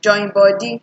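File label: "join body", Nigerian Pidgin, female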